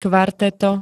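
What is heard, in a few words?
"kvarteto", Slovak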